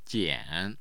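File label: "jian", Mandarin Chinese, tone 3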